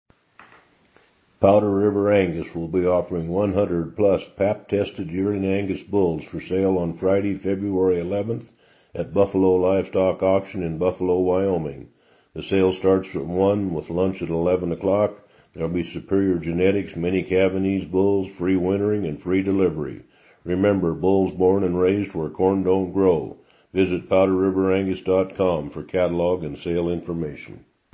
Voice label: Radio Ad; Powder River